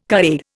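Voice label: "carer", Indian English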